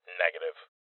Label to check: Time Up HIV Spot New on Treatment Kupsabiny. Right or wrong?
Wrong